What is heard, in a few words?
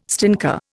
"stinker", Indian